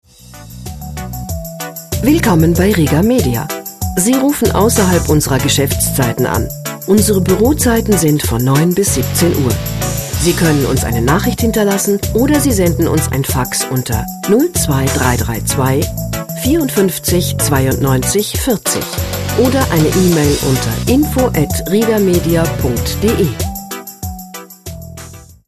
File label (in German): anrufbeantworter; rieger media